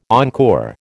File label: "encore", American